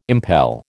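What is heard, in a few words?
"impel", American English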